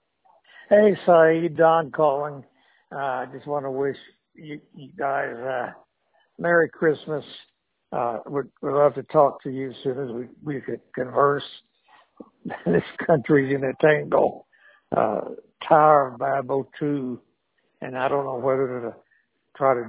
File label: voicemail